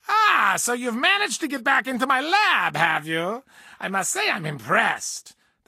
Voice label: English Voice; Disney; Phineas and Ferb; The Transportinators of Doooom